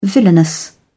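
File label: "villainous", English, British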